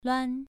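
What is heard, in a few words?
"luan", tone 2, pronunciation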